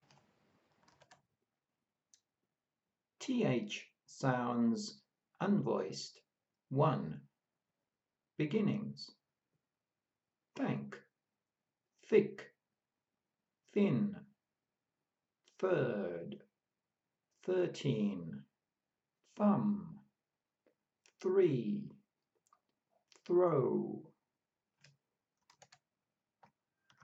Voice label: Th sounds unvoiced, pronunciation of australian english